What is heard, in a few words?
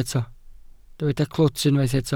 "clwt", Welsh